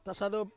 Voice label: "sado" to carry on ones shoulders, Ansus